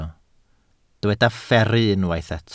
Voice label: "fferru", Welsh

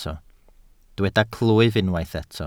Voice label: "clwyf", Welsh

